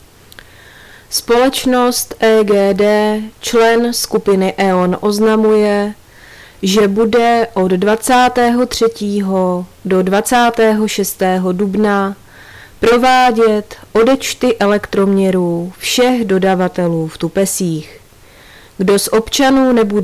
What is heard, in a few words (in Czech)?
odecty elektromeru, rozhlas